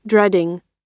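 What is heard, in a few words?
"dreading", American English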